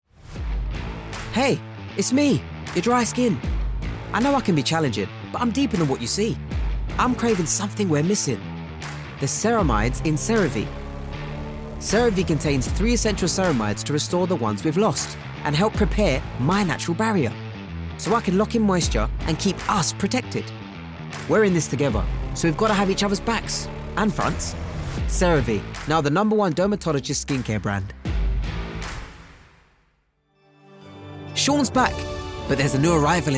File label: Commercial